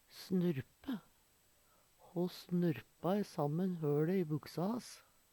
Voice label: snurpe, Numedalsmål; Verb

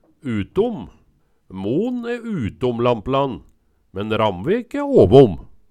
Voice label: utom, Numedalsmål

